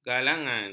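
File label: "galangan", Malay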